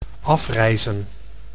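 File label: "afreizen", Dutch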